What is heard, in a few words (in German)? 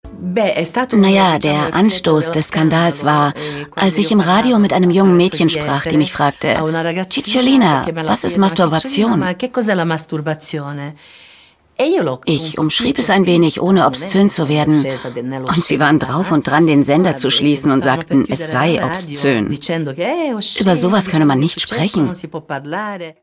Voice Over, frauen; arte; LaCicciolina